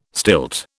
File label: "stilt", British